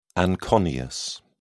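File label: "anconeus", English, British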